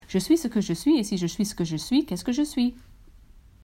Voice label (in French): virelangue